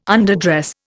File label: "underdress", Indian